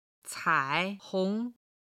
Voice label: "cai3 hong2", Chinese